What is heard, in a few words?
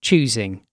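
"choosing", British English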